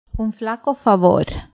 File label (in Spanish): frase "un flaco favor", cuba, pinar del rio femenina; spansk; fonetica